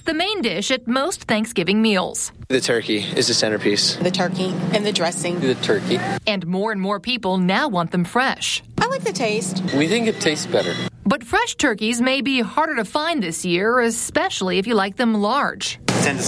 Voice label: commercial as news; butterball